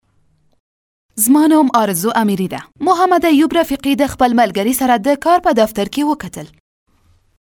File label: Pashto Female